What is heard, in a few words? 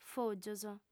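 "fògios", female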